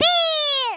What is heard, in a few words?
"fire", Greek; Worms Armageddon